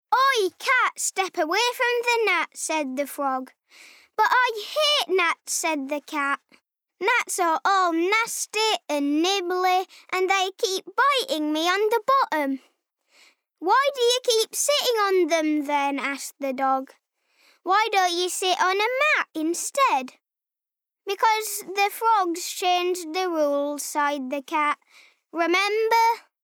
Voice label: Bookread; Oi Cat

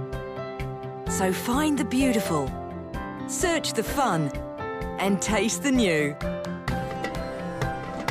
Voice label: Travel Insurance Direct Television Commercial

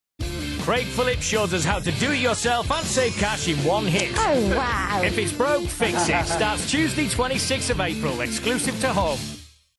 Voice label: PROMO Hard Upbeat; If its Broke Fix it

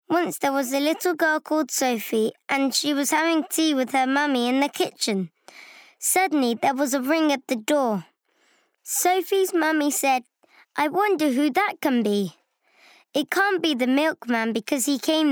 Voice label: Bookread

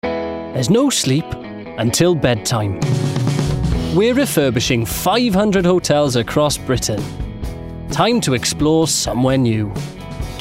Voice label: COMMERCIAL Welsh; Travelodge

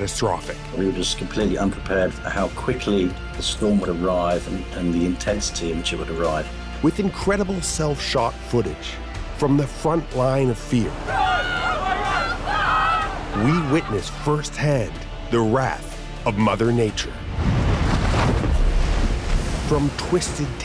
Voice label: Deadliest Weather Doc

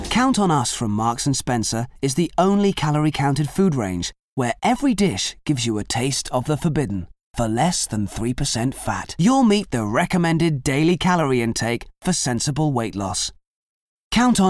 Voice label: Commercial

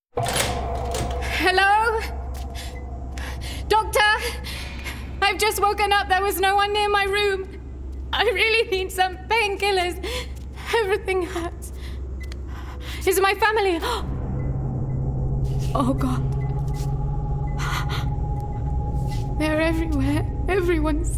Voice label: Gaming Everywoman

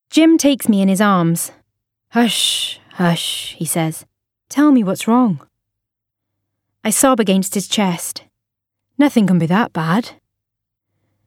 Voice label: AUDIOBOOK

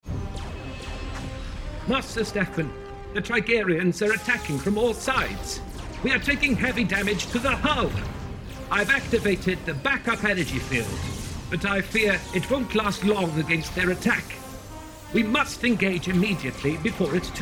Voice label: Robot Gaming

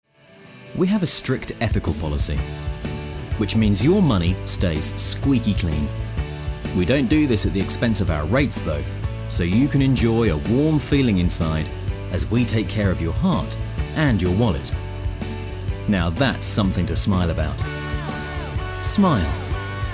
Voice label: Smile Commercial

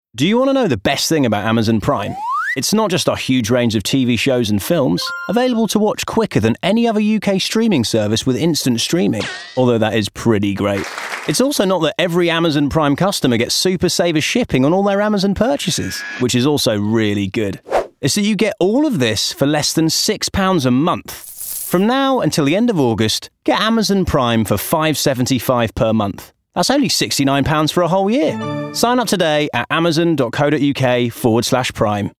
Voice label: COMMERCIAL; Amazon Prime

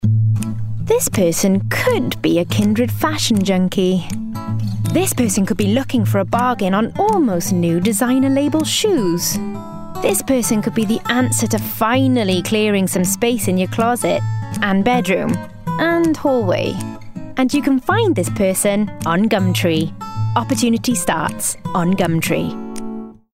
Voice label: COMMERCIAL

